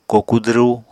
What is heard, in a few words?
"koku dwru", Apatani